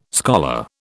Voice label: "scholar", British

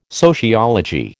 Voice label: "sociology", American

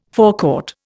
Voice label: "forecourt", Indian

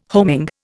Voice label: "homing", Indian

